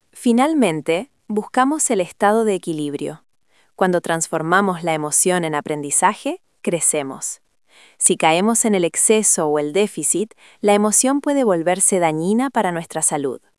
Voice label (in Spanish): locucion